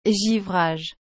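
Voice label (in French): "givrage", French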